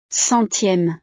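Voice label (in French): "centieme", French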